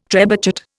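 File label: "trebuchet", Indian English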